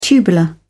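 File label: "tubular", British English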